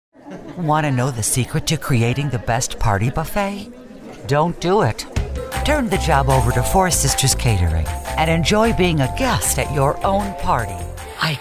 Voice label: Commercial Voiceover